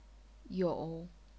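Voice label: "you", chinese pinyin, third tone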